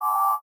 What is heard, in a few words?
borg exclaim